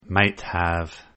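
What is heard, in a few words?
"might have", English; Contractions Pronunciation Practice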